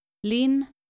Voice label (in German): nordische maedchennamen linn